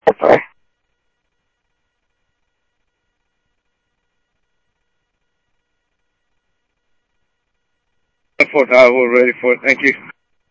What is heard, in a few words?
Sheriff, scanner audio; ThompsonPeakScot; Sheriff-EastCnty; MCSO - EAST